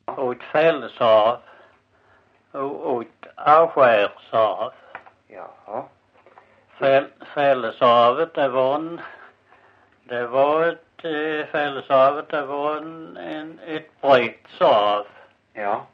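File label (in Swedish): Visseltofta Skåne, Svenska sagor dialekter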